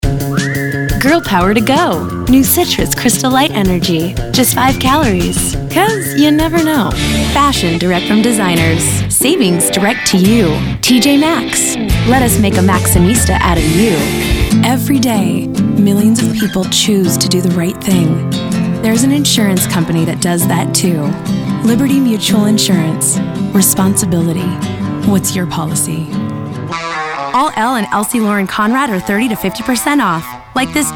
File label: Commercial